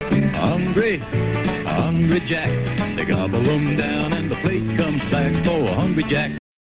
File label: commercials